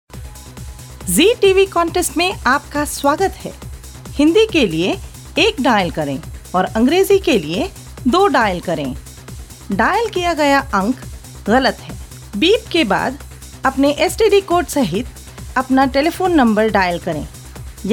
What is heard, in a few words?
hindi, female voice